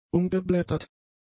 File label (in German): "umgeblättert", German; adjectives